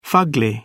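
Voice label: "fugly", British English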